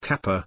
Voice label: "kappa" pronunciation